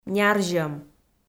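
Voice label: "нярзьӧм", Komi, female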